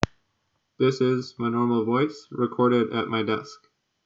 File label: Cup voice real